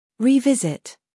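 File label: "scandal", American; revisit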